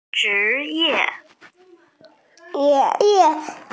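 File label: "zhi2 ye4", Mandarin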